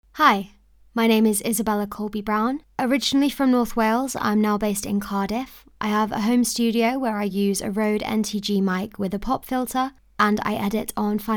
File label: Home Studio